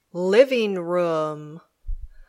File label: "living room", English